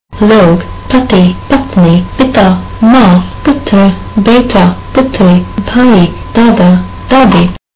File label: hindi; people